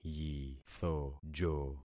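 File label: "yithojo", concatenated syllables; Affixing experiment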